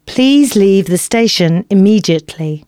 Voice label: announcements female